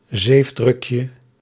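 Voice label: "zeefdrukje", Dutch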